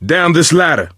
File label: misc directional, coach, survivor voice